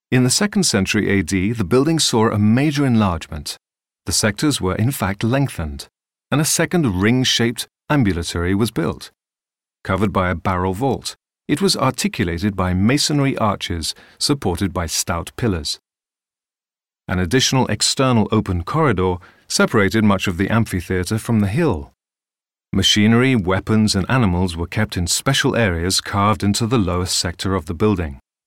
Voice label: inglese uk

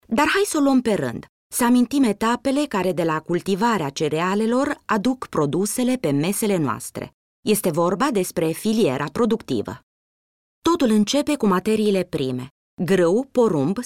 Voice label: rumeno

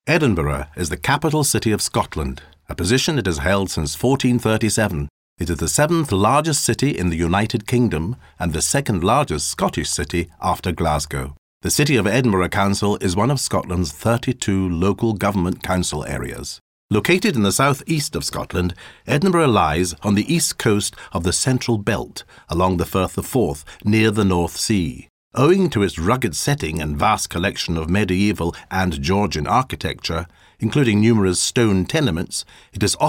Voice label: inglese uk

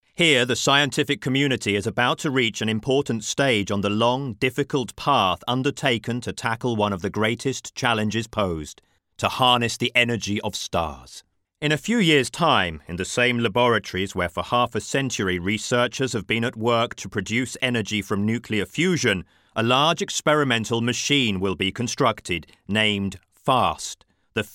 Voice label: inglese uk

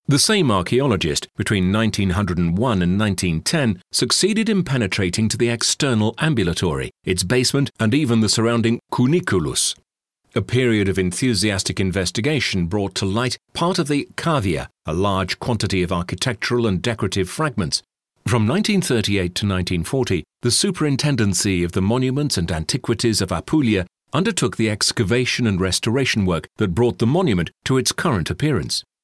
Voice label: inglese uk

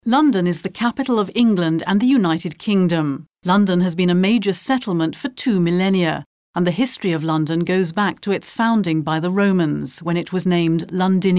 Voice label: inglese uk

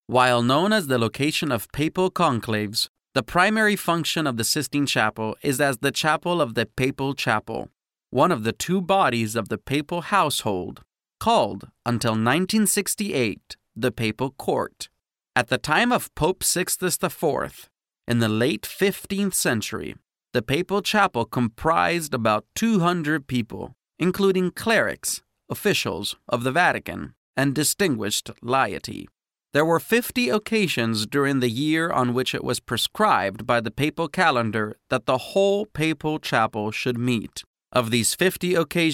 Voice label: inglese usa

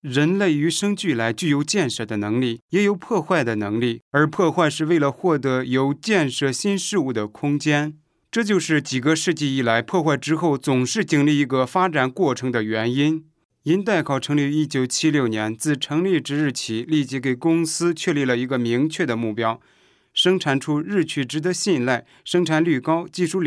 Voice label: cinese

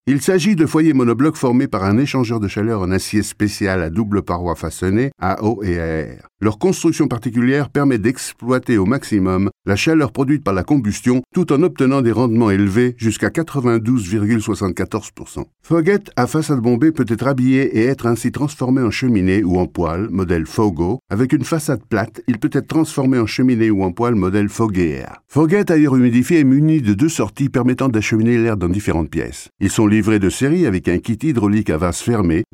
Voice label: francese

